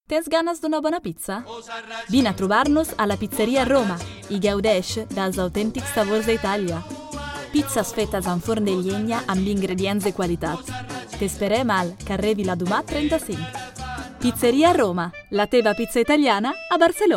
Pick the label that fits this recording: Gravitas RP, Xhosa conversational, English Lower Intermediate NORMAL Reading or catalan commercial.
catalan commercial